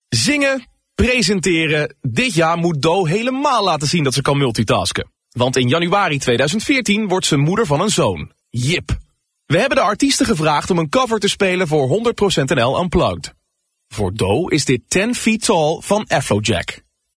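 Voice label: Dutch, male; dutch voice over talent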